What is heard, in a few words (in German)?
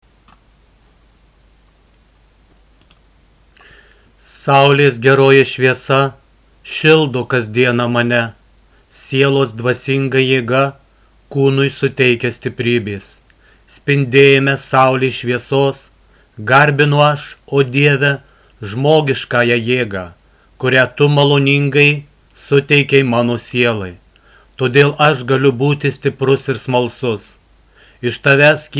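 Morgenspruch Litauen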